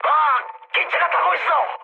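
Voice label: Korean on fire mood low; War Thunder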